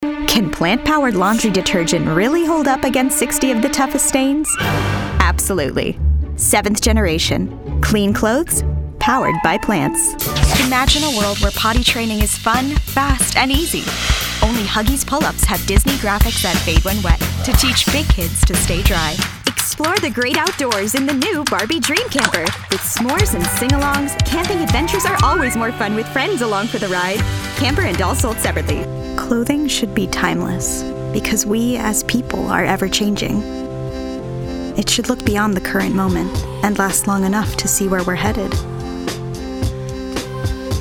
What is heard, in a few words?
Commercial VO